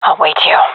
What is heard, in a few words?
waiting here, fem; vo